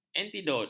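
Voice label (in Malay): "antidot", Malay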